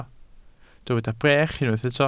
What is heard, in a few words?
"brech", welsh